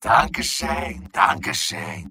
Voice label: medic positive vocalization; tf2; mvm